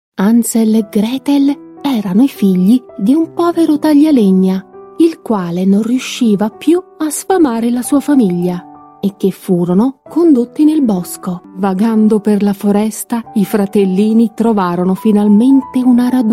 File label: Italian Female